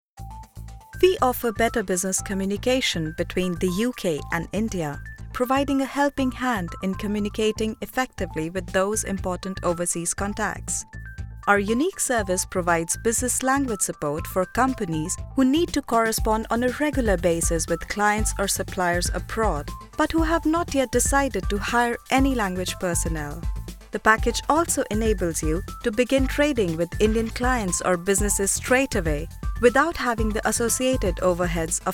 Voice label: narration english

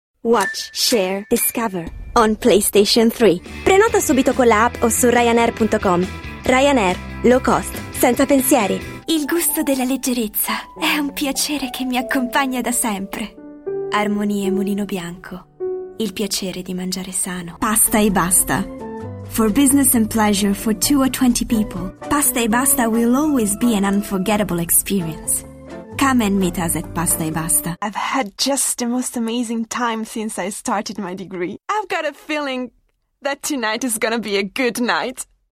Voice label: commercial reel english italian

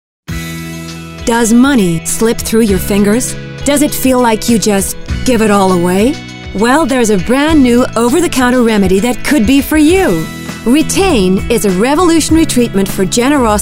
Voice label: character

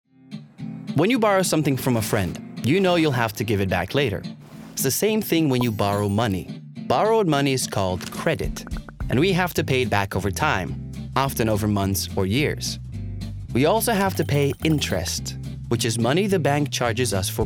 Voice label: Corporate

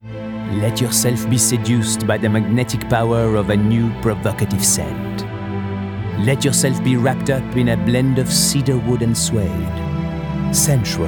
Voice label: english with french accent

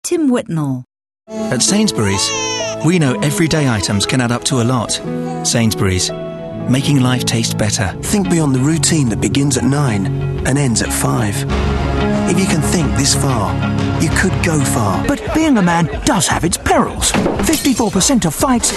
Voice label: commercial

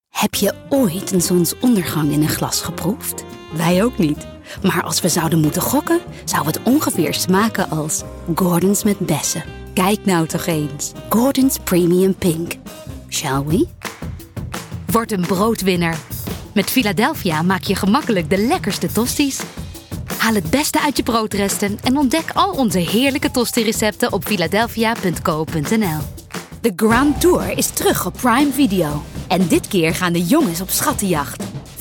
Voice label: Dutch

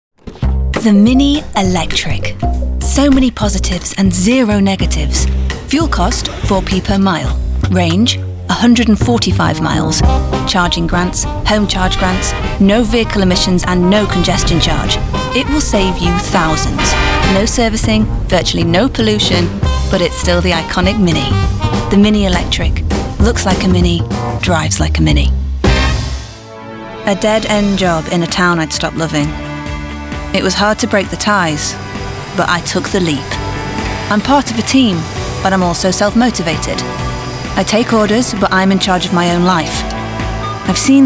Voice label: commercials

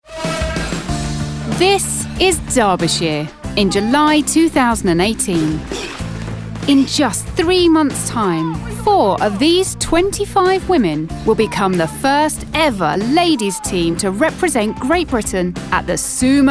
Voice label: narrative

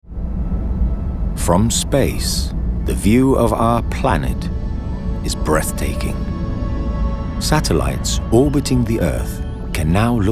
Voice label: narrative

